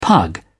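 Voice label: "pug", British English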